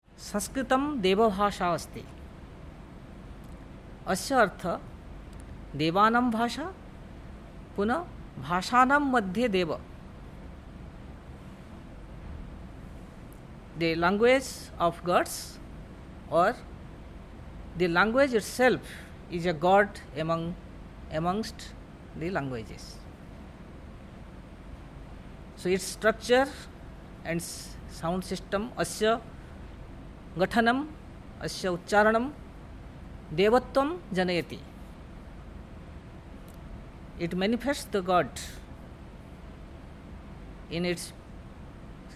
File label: sanskrit; tamil nadu